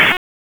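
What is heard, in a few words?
Raleigh Police North District Tactical, p25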